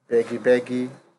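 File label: "begy begy", Nigerian Pidgin, male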